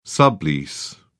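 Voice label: "sublease", British English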